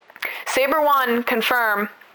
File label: Confirm, Radios; ISBSpecialForces; Aishi; Saber One